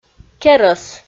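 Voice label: "керӧс", Komi, female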